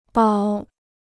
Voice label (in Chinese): "保", Chinese